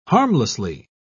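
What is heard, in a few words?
"harmlessly", British English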